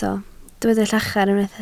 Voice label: "llachar", Welsh